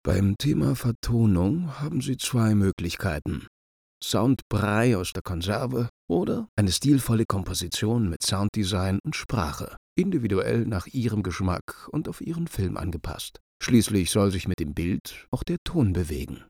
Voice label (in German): sprachaufnahmen bearbeiten vo nachher